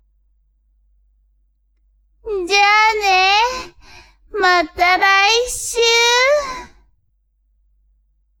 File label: woman